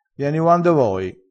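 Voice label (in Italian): "uando", vocabolario dialettale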